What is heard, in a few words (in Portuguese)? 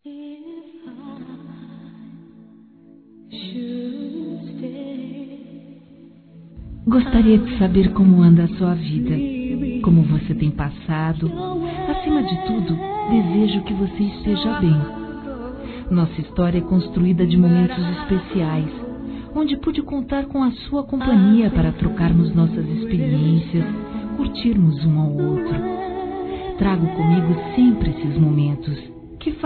Quero Te Encontrar Feminino; Telemensagens; Românticas